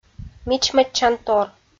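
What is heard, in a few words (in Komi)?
"мичмӧдчантор", Komi, female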